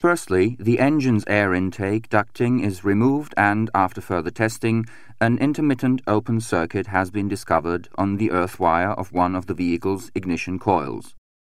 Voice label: ENG